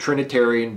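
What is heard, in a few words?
"trinitarian", American English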